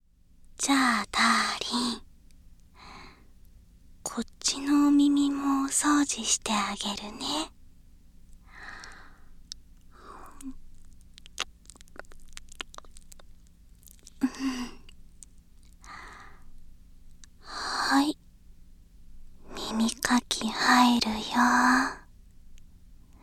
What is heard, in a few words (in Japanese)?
右 声のみ ノイズ除去なし